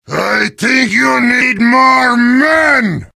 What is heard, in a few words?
heavy taunts; video games; source engine; tf